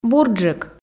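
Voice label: "бурджык", Komi, female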